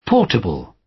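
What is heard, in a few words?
"portable" British; Technology; Công nghệ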